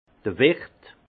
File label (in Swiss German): "wiert", alsace; vin; restaurateur